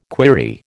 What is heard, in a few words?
"query", American